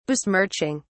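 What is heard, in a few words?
"besmirching", British English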